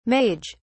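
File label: "mage", British English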